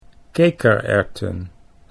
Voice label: "kekererwten", Dutch